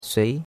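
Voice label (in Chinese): "sui2" Chinese; Cours de Chinois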